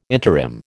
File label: "interim", American